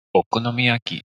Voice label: "Okonomiyaki" pronunciation